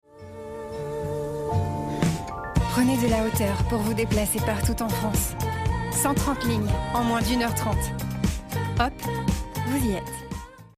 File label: female French commercial; hop air france